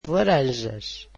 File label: portuguese oranges